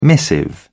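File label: "missive", British English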